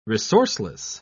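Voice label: "resourceless", British English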